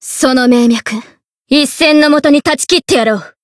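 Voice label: Voice limit break, Japanese; suzette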